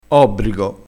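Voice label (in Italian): "obbrigo", dialettale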